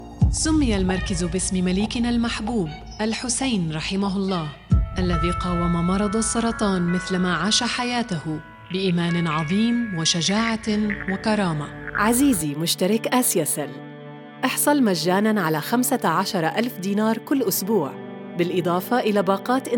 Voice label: Arabic